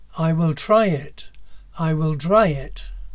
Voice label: I will try it I will dry it, mono; SummerSchool2021